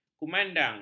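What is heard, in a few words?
"kumandang", Malay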